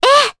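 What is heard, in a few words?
Vox Aff Japanese; Ophelia; kingsraid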